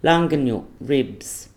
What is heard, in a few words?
"larnginyku" ribs